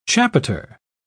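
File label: "chapiter", British English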